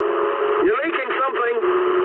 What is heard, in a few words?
eng tank damaged mood med, speech eng